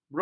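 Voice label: "broked", Malay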